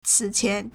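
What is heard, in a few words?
"cǐqián", Chinese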